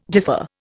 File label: "differ", Indian